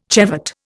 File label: "chevet", Indian